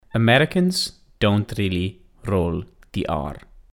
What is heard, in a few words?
American Rolling r